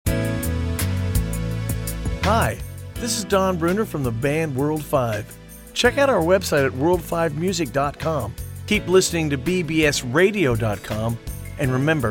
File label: bbs radio promo